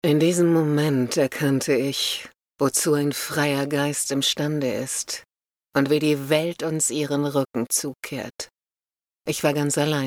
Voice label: Off Serious